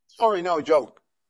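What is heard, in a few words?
VoiceActing es M2, voice acting, Spanish, male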